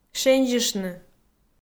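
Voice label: "шензьыштны", Komi, female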